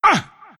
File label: pain; dota2; omniknight